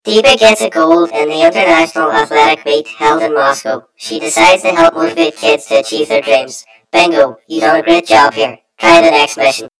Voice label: story voiceover; yumpod